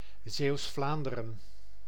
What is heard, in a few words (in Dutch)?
Zeeuws Vlaanderen, hollandul; Tengermenti Flandria; Zeelandic Flanders